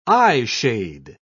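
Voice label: "eyeshade", British English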